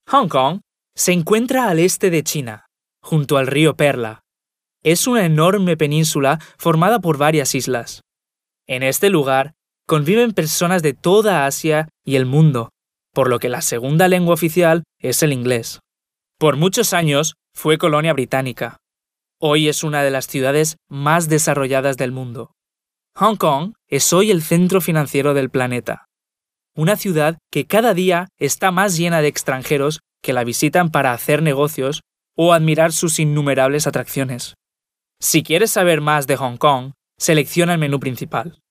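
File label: Spanish male corporate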